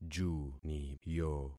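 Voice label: "juniyo", concatenated syllables; Affixing experiment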